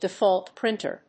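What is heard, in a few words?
"default printer", English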